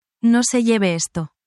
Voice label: spanish, spanish phrases